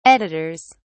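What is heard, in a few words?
"editors", British English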